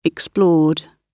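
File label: "explored", British English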